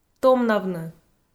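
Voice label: "томнавны", Komi, female; audio dict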